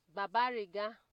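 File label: "babariga", Nigerian Pidgin, female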